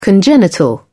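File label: "congenital", British English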